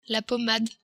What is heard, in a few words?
"pomade", French